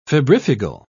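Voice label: "febrifugal", British English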